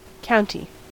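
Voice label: "county", US English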